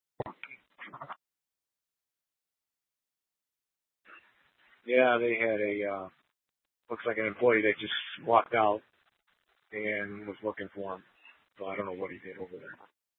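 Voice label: Scottsdale Police South Dispatch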